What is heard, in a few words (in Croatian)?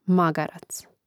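"magarac", Croatian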